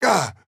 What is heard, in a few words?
Hurt